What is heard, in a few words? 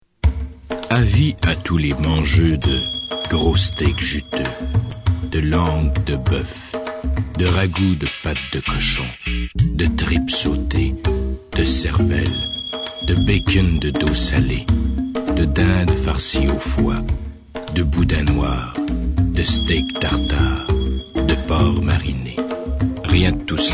Voice demo